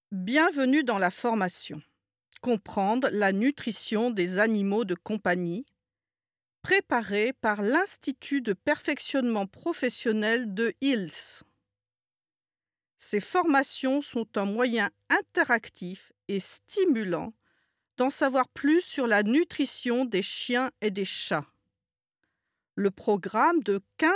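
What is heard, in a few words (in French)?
French, female, narration; voice demos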